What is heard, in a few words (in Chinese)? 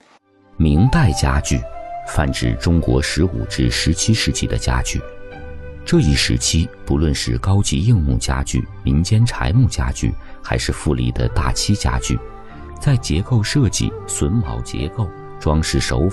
男, 纪录片, 明代家具